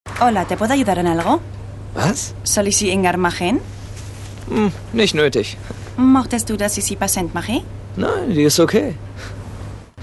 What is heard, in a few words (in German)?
Kinospot dt. mit span. Akzent